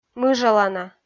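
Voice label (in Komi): "мыжалана", Komi, female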